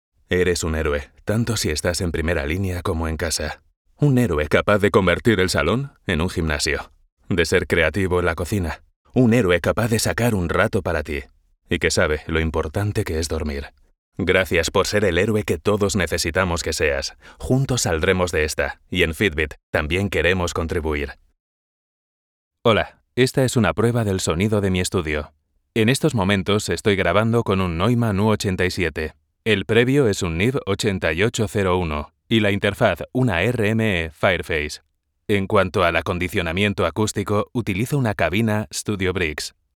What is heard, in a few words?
Spanish